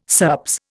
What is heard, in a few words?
"SERPS", Indian